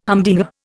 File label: "humdinger", Indian